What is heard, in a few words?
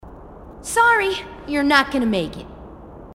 marine tough